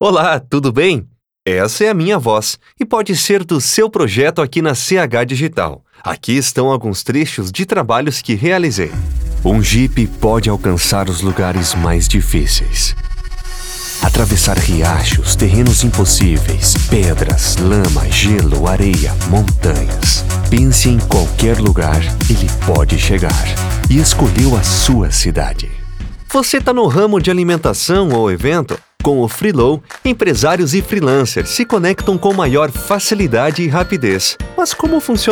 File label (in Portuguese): Voz Masculina